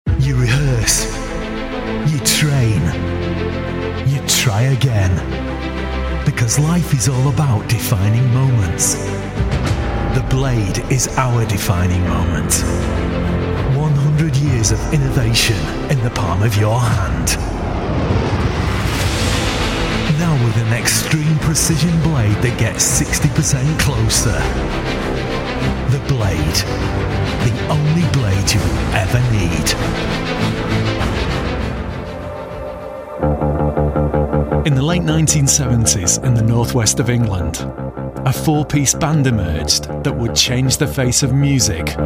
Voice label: northern